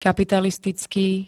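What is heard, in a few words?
"kapitalistický", Slovak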